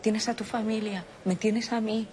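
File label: VoiceActing Spanish, female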